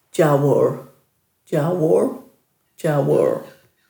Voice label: "djawur", Dhurga

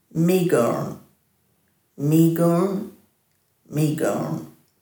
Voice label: "miigurn", Dhurga

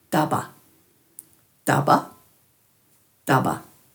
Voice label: "dhaba", Dhurga

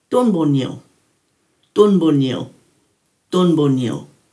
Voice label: "dhunbunyil", Dhurga

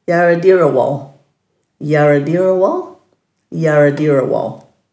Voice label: "yaradirawal", Dhurga; two days time; dulagaal

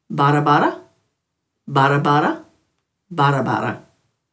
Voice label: "barra-barra", Dhurga

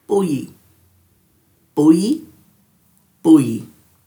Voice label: "buyi", Dhurga

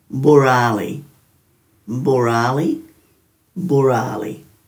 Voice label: "buraali-", Dhurga

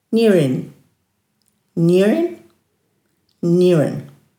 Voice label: "njirinj", Dhurga

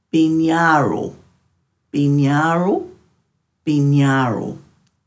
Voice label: "binyaaru", Dhurga

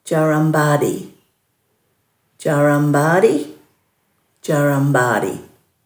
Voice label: "djarambaadhi", Dhurga

